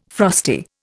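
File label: "frosty", Indian English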